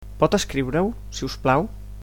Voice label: "write", Catalan